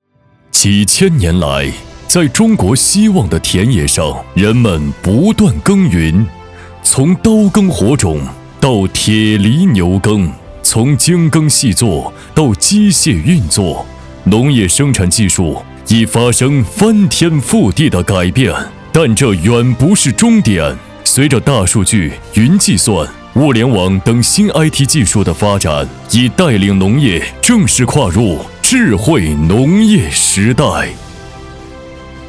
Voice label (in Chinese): 男 企业专题; 江苏新希望智慧农业